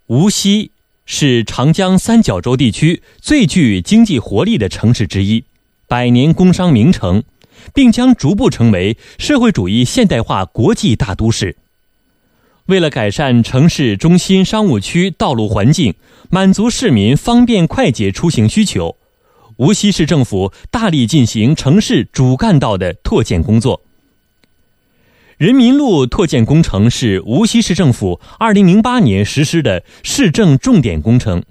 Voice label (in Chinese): 无锡专题, 国语男声配音, 北方经济型